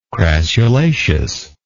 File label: "crassulaceous", English